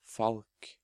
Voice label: "Falk", Norwegian

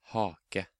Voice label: "Hake", Norwegian

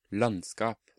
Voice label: "Landskap", Norwegian

